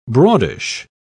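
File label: "broadish", British English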